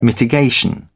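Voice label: "mitigation", British English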